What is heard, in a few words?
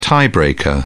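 "tie breaker", British English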